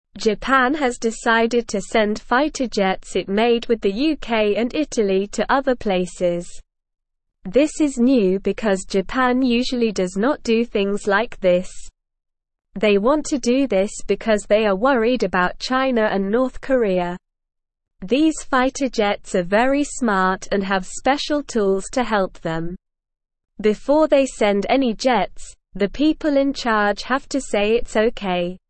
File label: English SLOW Reading; English Newsroom; Japan Sends Fighter Jets to Keep Peace with Other Countries